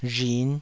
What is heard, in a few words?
"rhin5", taiwanese